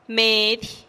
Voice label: "medih" dinero, teotitlan; household item

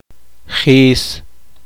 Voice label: gajo, poqomchi

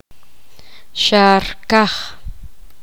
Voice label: poqomam cielo azul

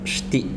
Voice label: "intestinos" Zapoteco

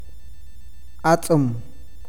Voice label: "sal", awakateko

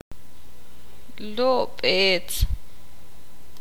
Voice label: "fruta", ixil

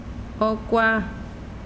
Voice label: "su pie", mazahua

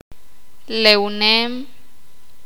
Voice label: "nadar", sakapulteko

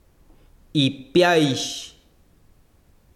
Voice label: "hueso", besiro, chiquitano

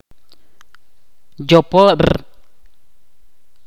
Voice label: "hoja", Ch'orti'

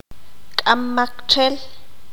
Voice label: "nadie", qanjobal

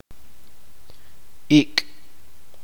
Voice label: "viento", chuj

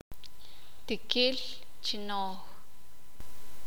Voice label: "persona que siembra milpa", Sakapulteko

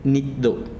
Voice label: "mar" Zapoteco

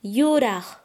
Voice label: "blanco", Quechua Chanka

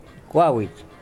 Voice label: Nawat palabras arbol; pipil

